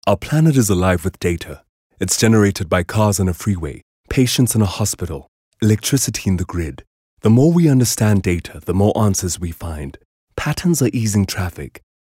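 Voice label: English Corporate South African Neutral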